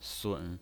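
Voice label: "sun", Mandarin Chinese pinyin, third tone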